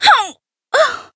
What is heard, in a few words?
Voice damaged